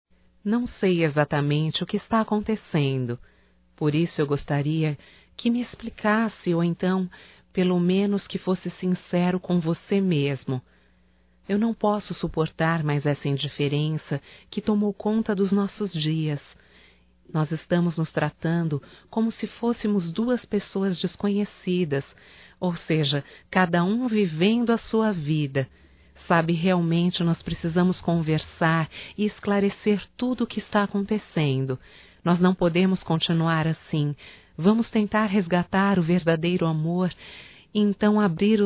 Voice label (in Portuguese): RECONCILIAÇÃO (F), Telemensagens Românticas fem